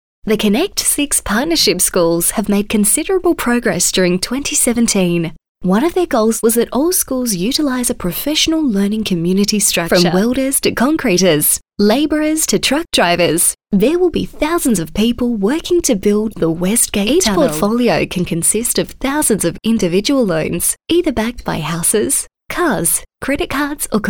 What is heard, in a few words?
Corporate